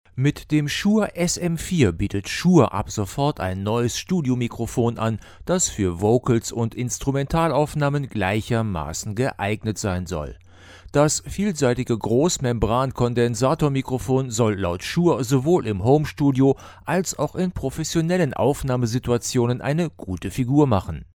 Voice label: RODE NT2 A